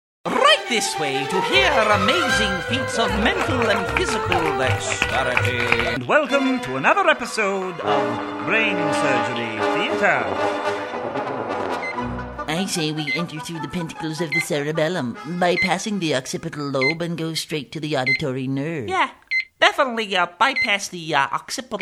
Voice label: Cartoon, VoiceOver